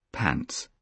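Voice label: "pants", British English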